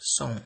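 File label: "some" Nigerian Pidgin, male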